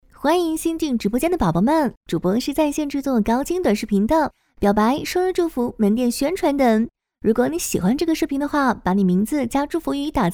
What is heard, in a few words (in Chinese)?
短视频 广告